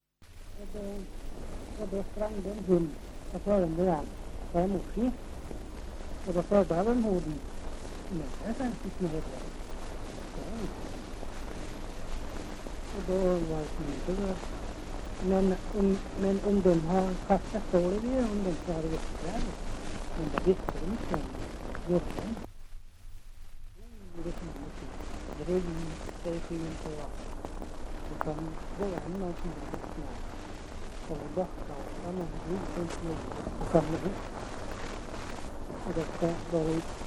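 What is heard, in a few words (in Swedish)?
Hede Härjedalen, svenska sagor dialekter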